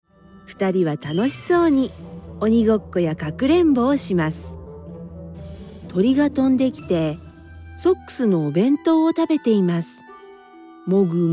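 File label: Japanese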